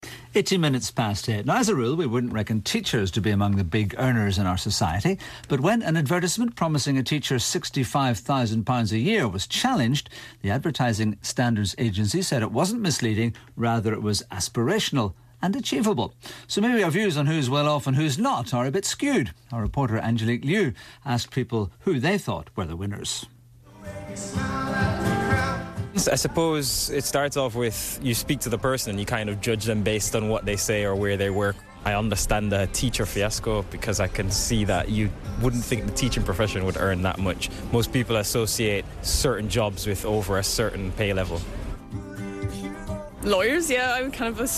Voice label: vox pop; good morning ulster; teacher's pay